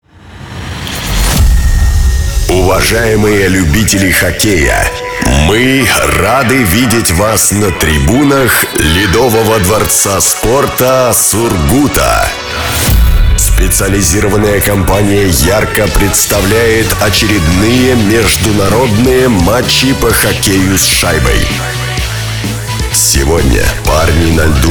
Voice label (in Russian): ЗВУКОВОЕ ОБЪЯВЛЕНИЕ; Хоккей Сургут